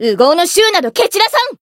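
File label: encounter, jp; azami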